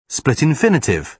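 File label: "split infinitive", British English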